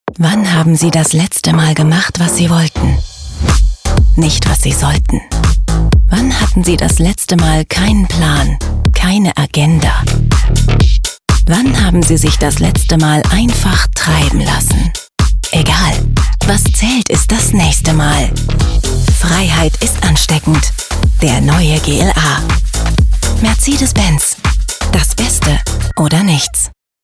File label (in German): Werbung sportlich spannend Stimmprobe, German; Werbe-Demo: dynamisch, sportlich; Commercial (Werbung); voice; Mercedes